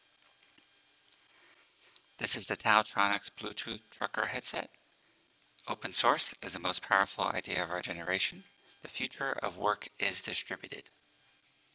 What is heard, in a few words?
taotronics bluetooth